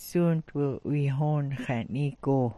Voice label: "'wiihoon", smalgyax